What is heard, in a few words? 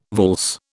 "valse", British